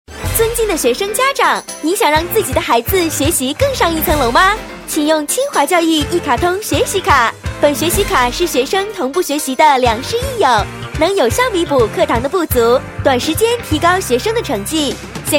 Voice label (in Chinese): 女72 广告 一卡通学习卡, 国语女声配音 年轻